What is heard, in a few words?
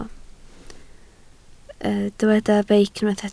"beic", Welsh